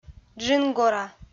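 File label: "джынгора", Komi, female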